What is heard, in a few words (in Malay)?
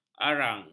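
"arang", Malay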